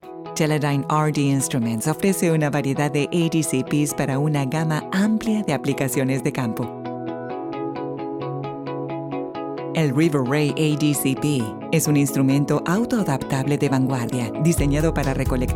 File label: corporate video product narration